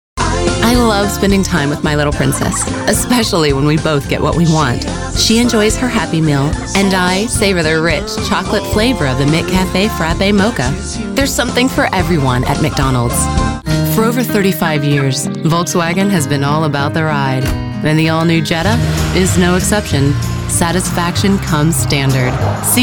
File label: Mom, Commercial